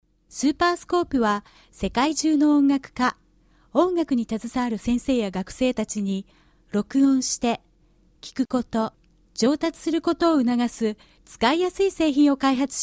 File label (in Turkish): Japonca Kadin